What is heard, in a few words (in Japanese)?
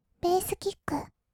ベースキック, kawaii voice; 音ゲー